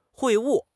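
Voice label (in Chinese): "会晤", Chinese; HSK6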